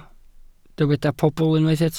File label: "pobl", Welsh